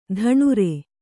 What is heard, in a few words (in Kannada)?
"ದಿನಾರು", Kannada